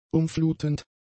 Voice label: "umflutend", German; adjectives

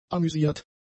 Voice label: "amüsiert", German; adjectives

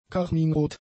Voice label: "karminrot", German; adjectives

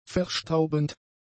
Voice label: "verstaubend", German; adjectives

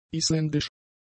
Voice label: "isländisch", german; adjectives

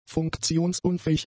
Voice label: "funktionsunfähig", German; adjectives

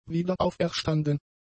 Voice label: "wiederauferstanden", German; adjectives

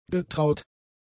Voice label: "getraut", german; adjectives

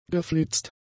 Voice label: "geflitzt", German; adjectives

